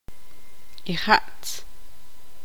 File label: tzutujil; semilla